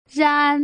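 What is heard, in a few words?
"ran", Mandarin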